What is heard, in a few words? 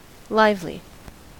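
"lively", US English; pronunciations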